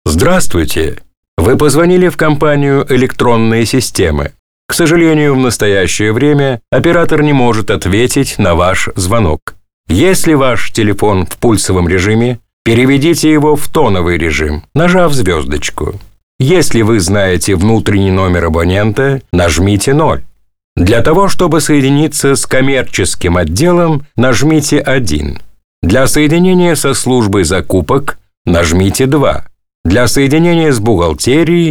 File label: IVR